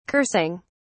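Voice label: "cursing", British English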